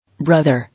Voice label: "Brother", English